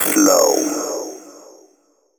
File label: techno male 140; Vocals